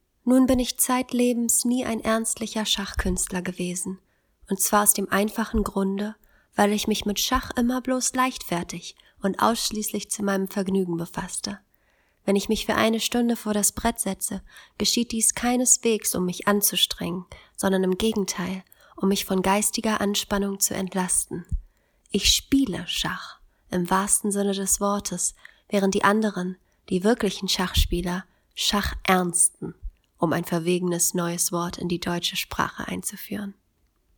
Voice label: German